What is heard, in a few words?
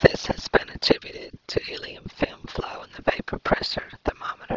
whisp